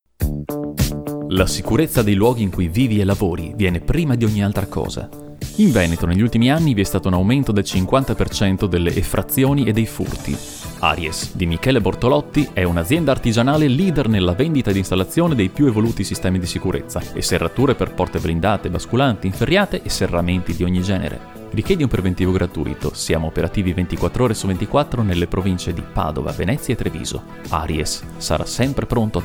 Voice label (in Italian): Pubblicità Radio; Aries; Radio Gamma 5